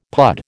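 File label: "pud", American English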